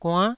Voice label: "guann9", taiwanese

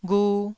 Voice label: "ghu1", Taiwanese syllable

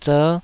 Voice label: "zer5", taiwanese syllables

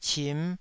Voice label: "cim5", taiwanese

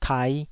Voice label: "kai5", taiwanese syllables

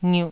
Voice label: "ngiuh2", taiwanese

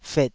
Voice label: "fet3", taiwanese syllable

